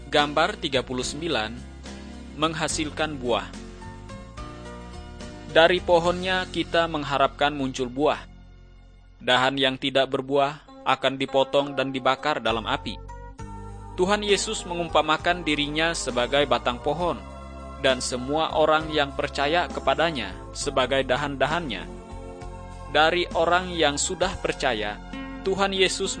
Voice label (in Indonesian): kabar baik good news bahasa indonesia